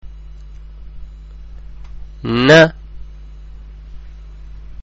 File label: "Noon", arabic; arabic-malayalam; അക്ഷരമാല